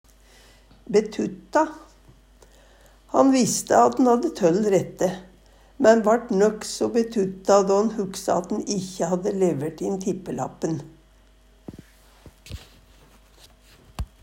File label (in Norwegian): betutta, Numedalsmål; Han visste at'n hadde 12 rette, men vart nøkso betutta då'n hugsa at'n ikkje hadde le